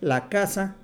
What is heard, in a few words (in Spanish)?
"la casa", mx